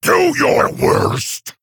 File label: Male Fel Orc Attack